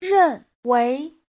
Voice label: "ren4wei2", chinese